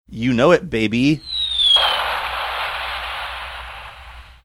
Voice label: saying you know it baby playing falling bomb sound effect